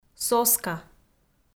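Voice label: "соска", Komi-Zyrian, female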